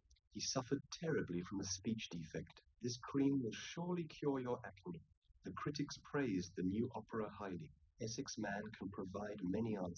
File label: male3 liverec 250ms 1m sim; SiSEC08; SiSEC underdetermined